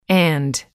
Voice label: "and", English